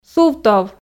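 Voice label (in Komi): "сувтов", Komi, female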